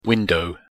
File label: pronunciation en window